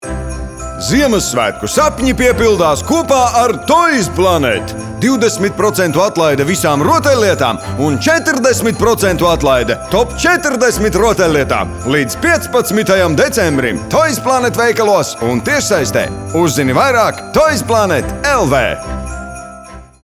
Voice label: Xmas Radio LV; Toys Planet